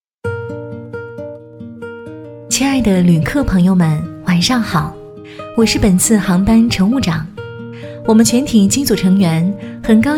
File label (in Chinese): 航空晚间播音; 祥鹏航空